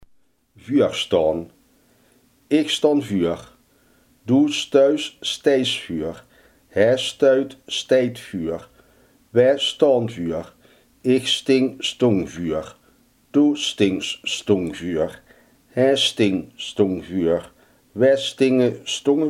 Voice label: "voorstaan", limburgse

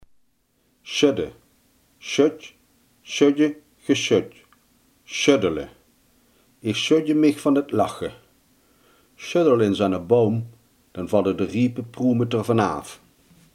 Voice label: "schudden", Limburgs

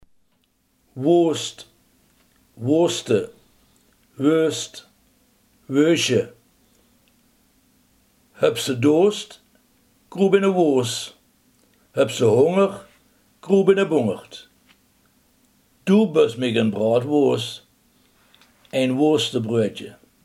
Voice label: "worst", limburgse

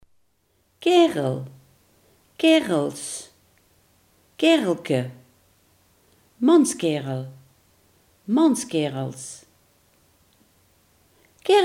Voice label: "kerel", Limburgs

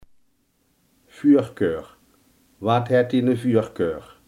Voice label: "voorkeur", limburgse

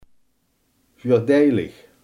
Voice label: "voordelig", limburgse

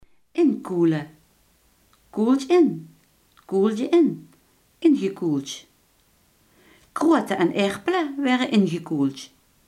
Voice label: "inkuilen", Limburgs

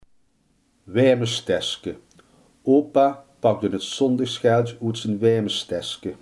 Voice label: "vestzakje", limburgse

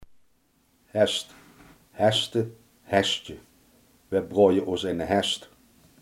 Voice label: "speklap", Limburgs

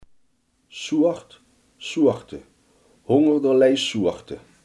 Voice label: "soort", Limburgs

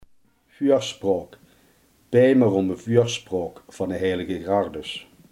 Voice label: "voorspraak", limburgse

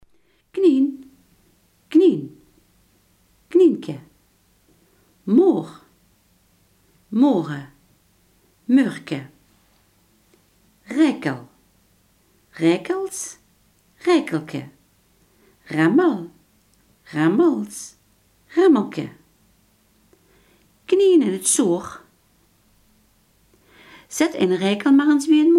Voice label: "konijn", Limburgs

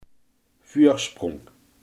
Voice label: "voorsprong", limburgse

